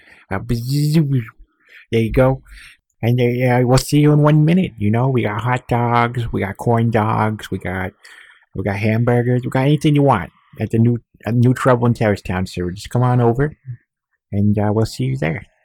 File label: join us, backwards; css